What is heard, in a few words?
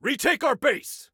Base Retake, Soldier Male